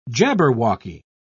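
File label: "jabberwocky", British English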